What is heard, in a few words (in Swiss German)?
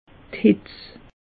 "hitz", alsace; meteo